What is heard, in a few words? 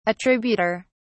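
"attributor", British English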